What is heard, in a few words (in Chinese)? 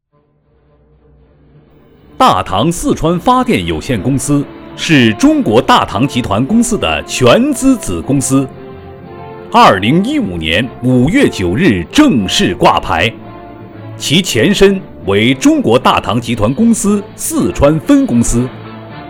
男 广告 大气厚重, 国语男声配音; 大唐发电